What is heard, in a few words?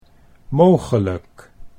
"mogelijk", Dutch